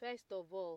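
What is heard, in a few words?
"first of all", Nigerian Pidgin, female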